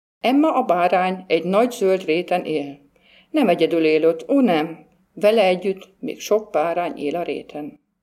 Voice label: ungarisch

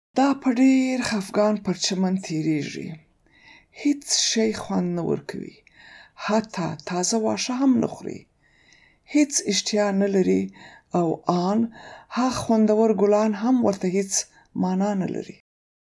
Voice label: paschtu

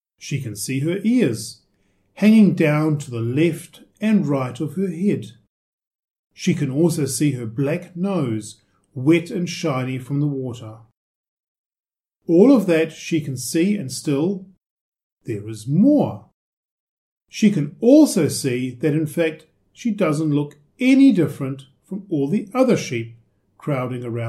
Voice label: englisch

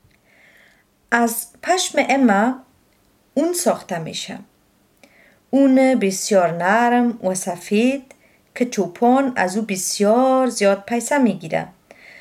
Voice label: dari

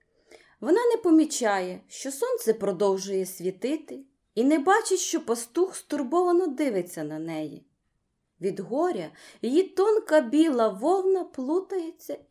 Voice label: ukrainisch

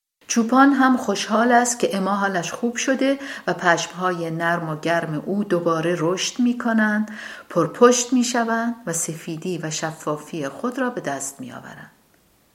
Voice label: farsi

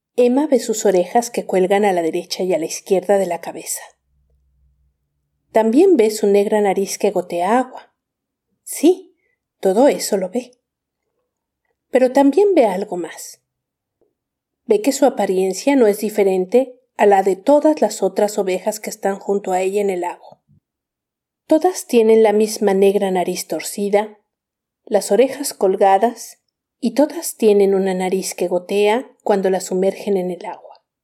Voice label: spanisch

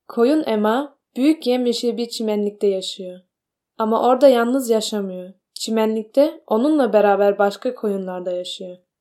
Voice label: türkisch

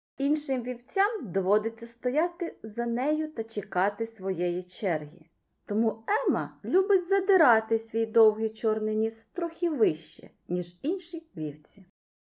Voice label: ukrainisch

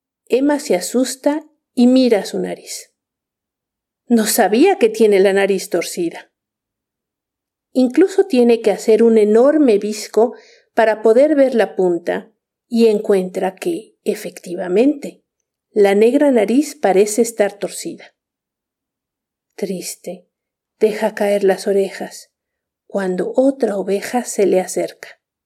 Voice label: spanisch

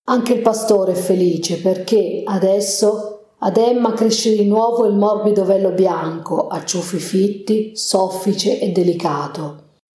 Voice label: italienisch

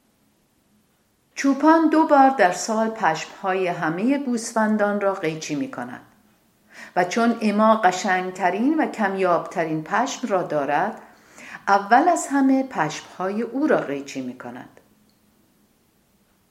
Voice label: farsi

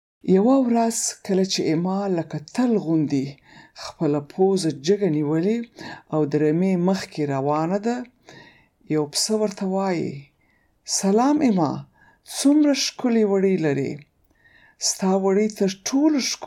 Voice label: paschtu

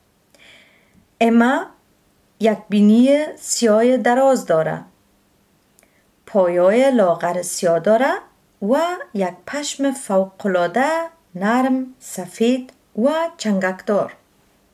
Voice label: dari

